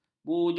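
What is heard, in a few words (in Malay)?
"bujuk", Malay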